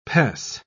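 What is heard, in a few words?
"pes", British English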